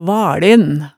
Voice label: "valen", hemsedalsmaalet tale

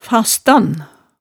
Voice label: "fastan", hemsedalsmaalet

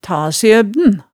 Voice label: "tasiøbn", hemsedalsmaalet tale

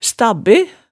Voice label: "stabbe", hemsedalsmaalet

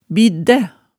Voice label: "biddæ", hemsedals maalet tale

